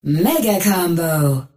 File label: Female Robot